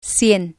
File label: "cien", spanish